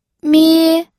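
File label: "mie", first tone, pinyin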